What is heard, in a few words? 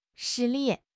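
"实力", Mandarin Chinese; HSK6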